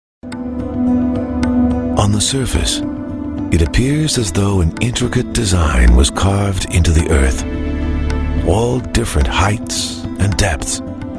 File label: narration, male